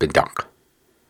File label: Syllables and Imaginary Words, Hard, Viking Vocal